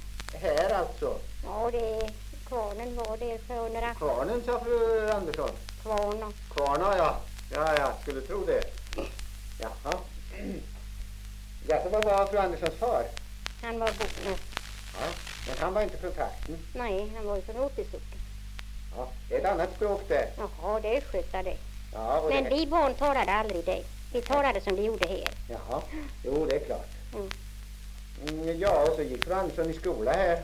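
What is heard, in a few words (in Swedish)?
Svinhult Östergötland, dialekter; Folkminnen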